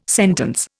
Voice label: "sentence", Indian